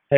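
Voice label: FIRE Operations, p25; FIRE OPS 1; wake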